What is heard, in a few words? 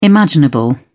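"imaginable", British English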